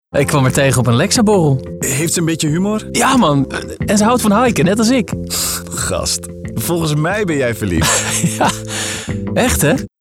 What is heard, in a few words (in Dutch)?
voice dialoog